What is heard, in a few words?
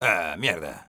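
negative vocalization, Spanish; Ah, mierda...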